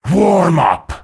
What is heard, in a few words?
Warm Up, PvP Announcer